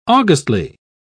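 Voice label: "augustly", British English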